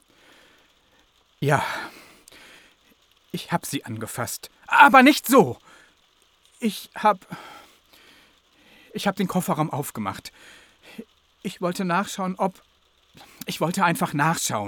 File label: german others